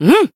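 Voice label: voice limitbreak, Japanese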